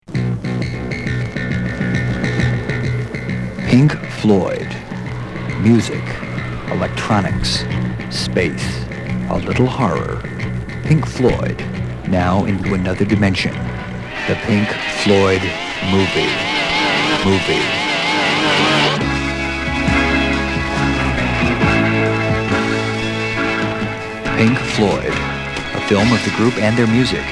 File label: Radio Advert